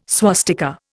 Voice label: "swastika", Indian